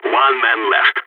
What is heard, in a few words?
one enemy left, balkan; vo